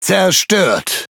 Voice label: De Speech Pilots Torpedo Destroy; World Of Warships

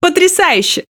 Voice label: Speech Quick Commands Good Game; World Of Warships

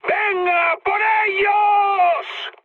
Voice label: enemy detected, mood high, speech; War Thunder

